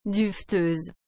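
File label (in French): "duveteuse", French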